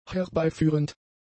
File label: "herbeiführend", German; adjectives